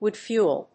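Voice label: "Wood fuel", English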